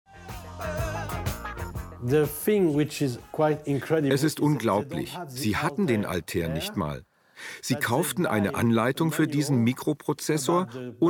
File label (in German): Voice over; ZDFInfo; Bitstories